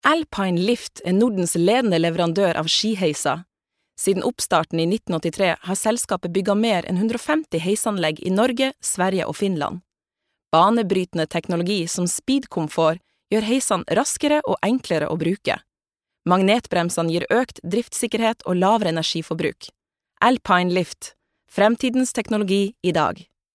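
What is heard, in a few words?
Norwegian corporate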